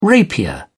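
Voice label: "rapier", British English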